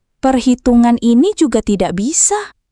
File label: indonesian phrases